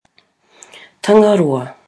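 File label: "tangaroa", Māori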